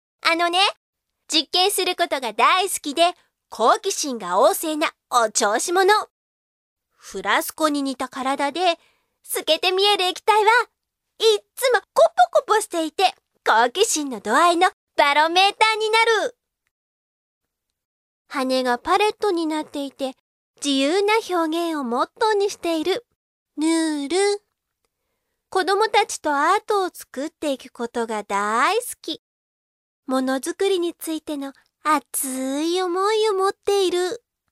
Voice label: Acting as a Child